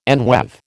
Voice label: "enweave", American

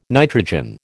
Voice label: "nitrogen", American

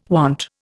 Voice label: "want", Indian

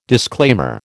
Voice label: "disclaimer", American

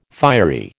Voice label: "fiery", American

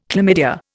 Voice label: "chlamydia", Indian

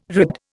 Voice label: "ribbed", Indian

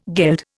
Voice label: "geld", Indian

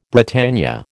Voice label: "Britannia", American

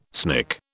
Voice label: "snick", British

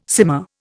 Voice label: "simmer", Indian

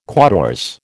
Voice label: "quatorze", American

